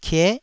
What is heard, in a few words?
"kie9", taiwanese syllable